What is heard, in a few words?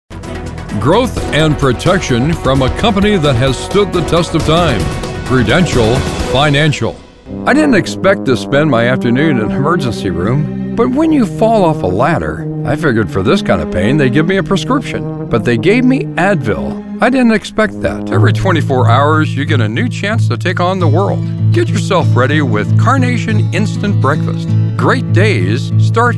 commercial